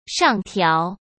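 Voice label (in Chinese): "上调", Mandarin Chinese; hsk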